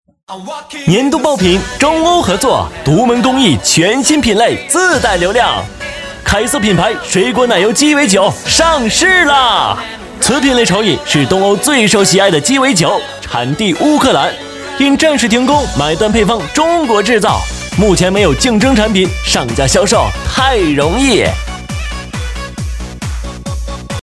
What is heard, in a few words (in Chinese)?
广告鸡尾酒